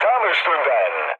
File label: bomb success, mood low, speech tur; War Thunder